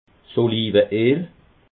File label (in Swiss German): "olive", alsace